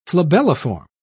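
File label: "flabelliform", British English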